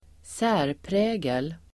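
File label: "särprägel", Swedish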